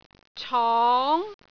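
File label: "cong2", Cantonese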